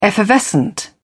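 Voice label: "effervescent", British English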